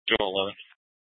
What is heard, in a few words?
PD Dispatch